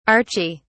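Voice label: "archie", English, British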